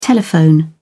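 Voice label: "telephone", British English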